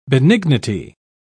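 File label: "benignity", English, British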